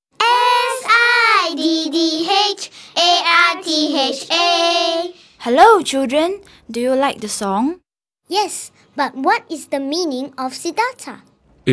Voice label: Narration